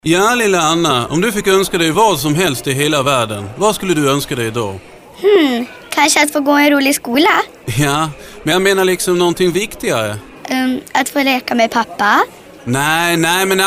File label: Radiospot